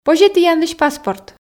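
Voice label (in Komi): позьӧ тіянлысь паспорт, Komi, female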